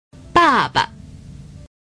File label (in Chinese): "爸爸", Chinese